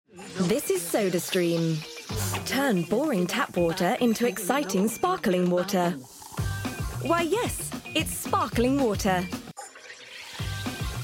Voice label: English British commercial

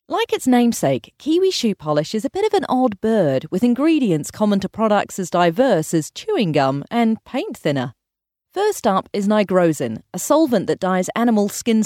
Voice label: english new zealand narration

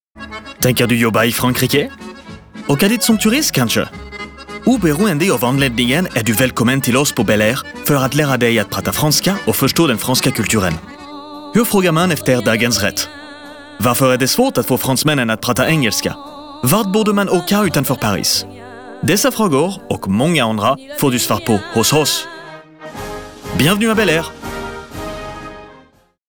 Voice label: swedish commercial

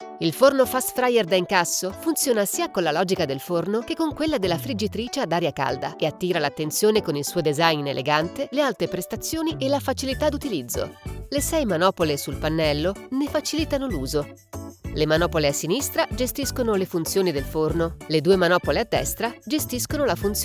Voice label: italian corporate videos

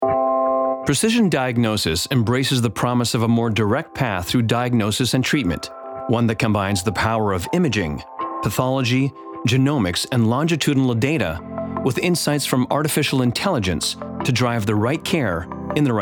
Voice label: english canadian

